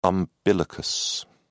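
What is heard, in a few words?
"umbilicus", British English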